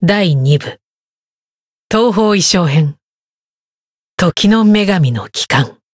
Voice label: title jp; clarte